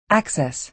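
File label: "access" American English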